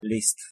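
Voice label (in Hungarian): "liszt" Hungarian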